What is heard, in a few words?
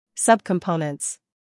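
"humor", British; subcomponents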